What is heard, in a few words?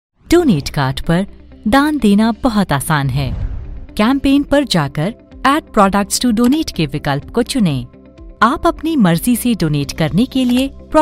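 Indian explainer Hindi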